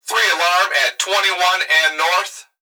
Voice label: Emergency Human Male Voice Fire Dispatch Three Alarm At And North; emergency effects